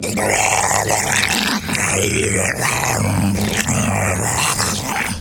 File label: Zombie Idle